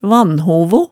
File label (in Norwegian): "vanhovo", hemsedalsmaalet tale